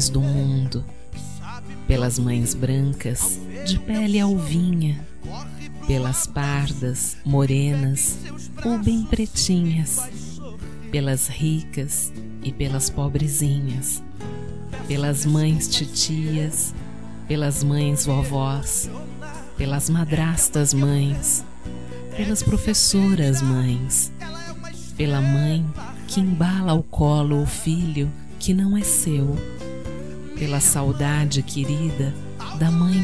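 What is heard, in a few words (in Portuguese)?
DIA DAS MAES OBRIGADA SENHOR female, telemensagem; telemensagem dia das maes diversos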